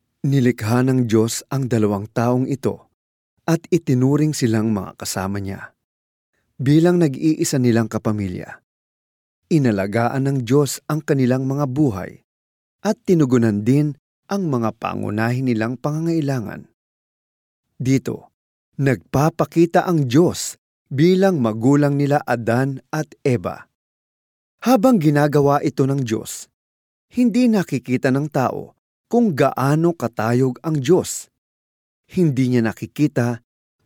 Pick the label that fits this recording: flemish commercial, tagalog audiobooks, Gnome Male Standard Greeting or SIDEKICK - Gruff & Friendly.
tagalog audiobooks